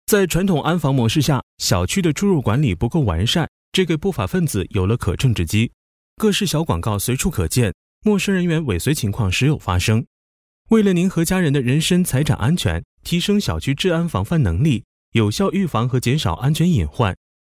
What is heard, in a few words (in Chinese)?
男 专题; 慧联无限